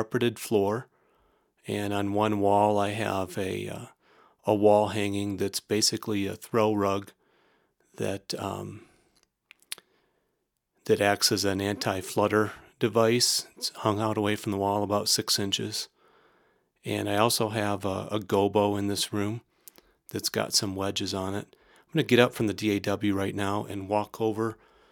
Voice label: vocal demo with multiple acoustic environments noise gate in place; LDC